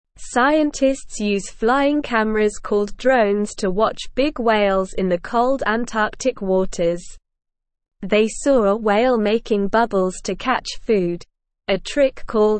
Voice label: English SLOW Reading; Drones help scientists study whales in Antarctica